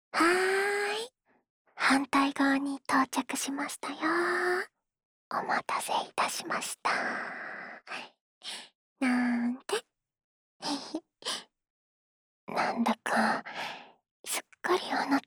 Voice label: こしょこしょ話とお耳ふーふー(右)

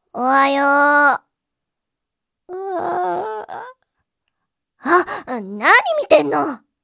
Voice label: 水曜 妹, おはよう

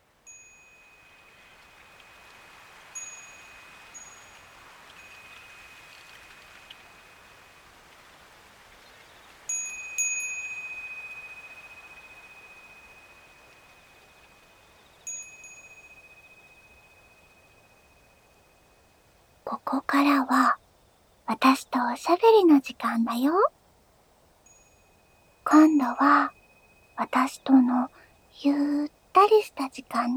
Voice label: 癒しの囁き（耳元トーク）; シャム猫編

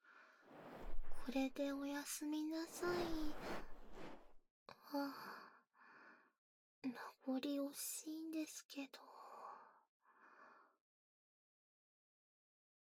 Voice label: 添い寝, ASMR

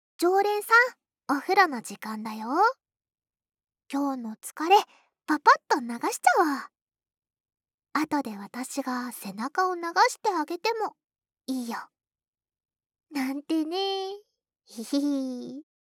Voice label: シチュエーションボイス; 10日間限定特典 和み処 一番星～距離感の近い甘えん坊な仲居『月さん』が一生懸命ご奉仕する癒しの 一日～

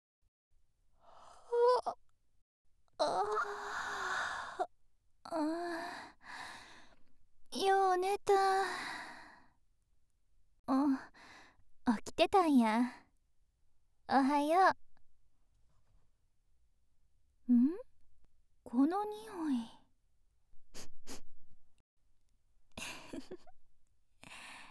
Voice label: おむつ安眠支援音声 京都弁お姉さんの甘やかし添い寝

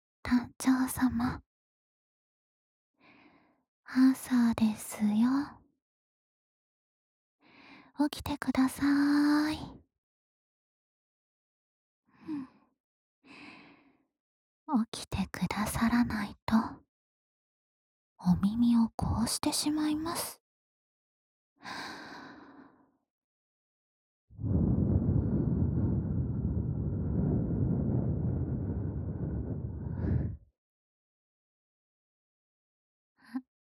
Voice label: 目覚ましボイス

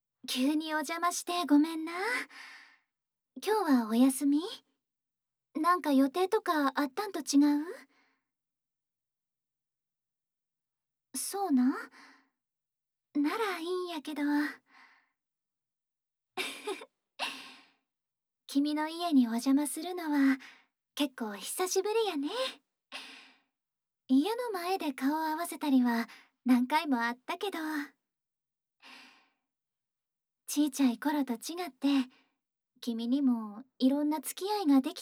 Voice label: はんなり関西弁お姉さんの耳かき, ASMR

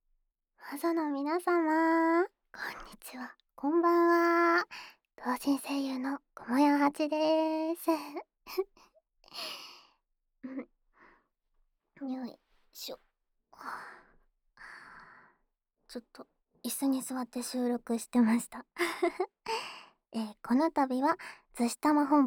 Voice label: フリートーク

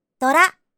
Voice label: "ドラ", 麻雀システムボイス

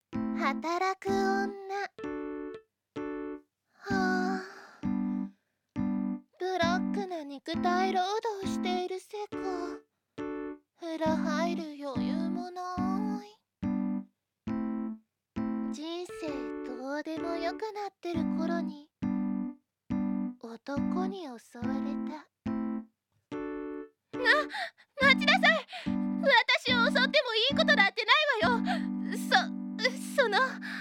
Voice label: 働く女 ボイスドラマ(効果音プラス)

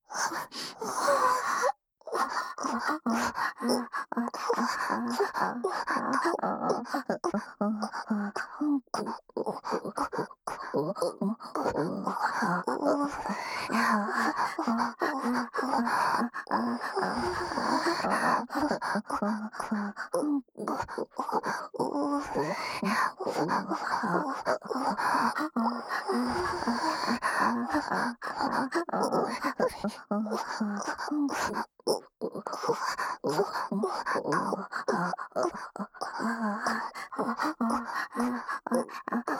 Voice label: 両耳囁きオホ声ループ♡

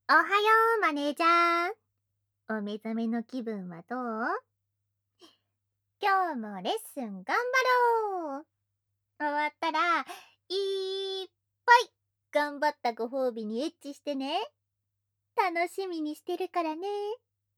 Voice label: 目覚ましボイス; アラームボイス

